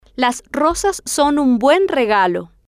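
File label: las Rosas Son Un Buen Regalo, Spanish, female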